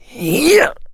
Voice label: melee swing, mechanic, survivor, voice